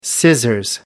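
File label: "scissors", English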